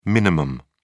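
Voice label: "min", British English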